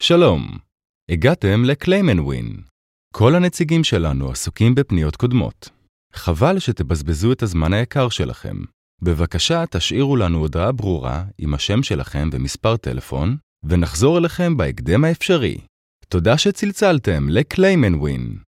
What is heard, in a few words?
IVR; Claim N win